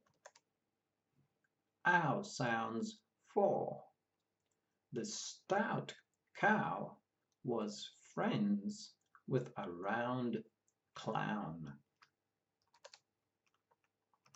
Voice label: Ou sounds, pronunciation of australian english

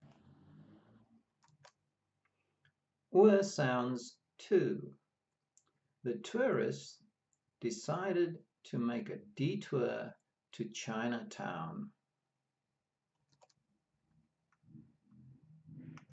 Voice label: Our sounds, pronunciation of australian english

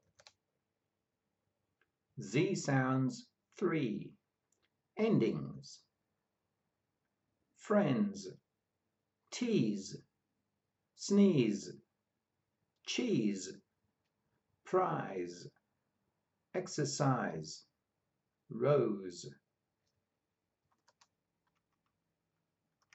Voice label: Z sounds, pronunciation of australian english

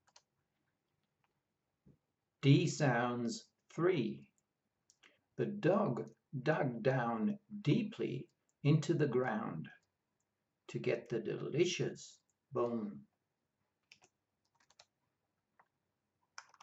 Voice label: D sounds, pronunciation of australian english

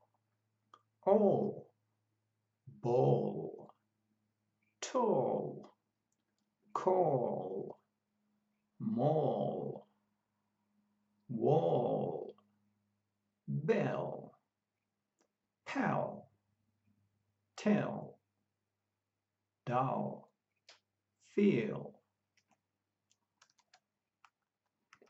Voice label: L sounds, pronunciation of australian english

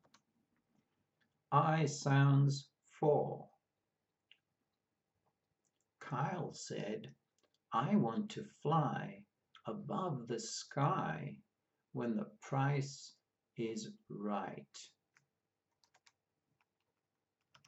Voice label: Ai sounds, pronunciation of australian english

